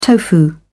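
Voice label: "tofu", British English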